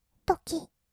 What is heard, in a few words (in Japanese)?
"ドキッ", kawaii voice; ASMR; 色々なワンショット